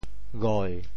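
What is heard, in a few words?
"goi7", Teochew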